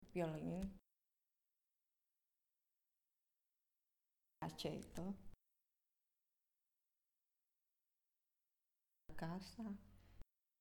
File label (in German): Zusammengefugte Worter Langsam Italienisch